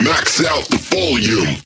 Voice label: Vocal Phrase Male "Volume"; Volume Alt